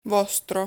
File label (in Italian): "Vostro", Italian